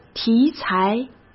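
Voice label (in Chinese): "题材", Mandarin Chinese; chinese flashcards; hsk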